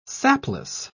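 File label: "sapless", British English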